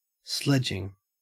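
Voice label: "sledging" English, Australian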